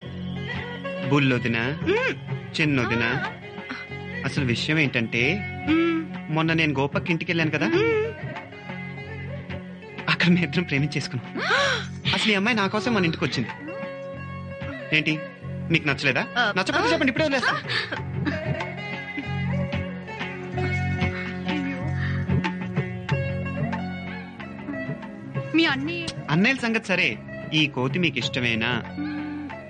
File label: Dialogue Telugu Ringtone; Murari; Mahesh